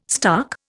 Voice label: "stark", Indian